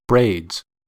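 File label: "braids", US English; pronunciations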